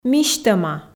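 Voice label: "мисьтӧма", Komi, female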